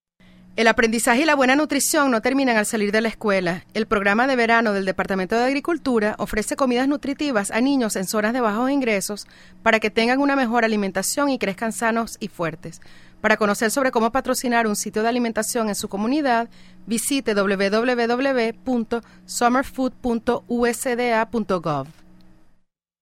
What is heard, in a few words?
Spanish PSA; SFSP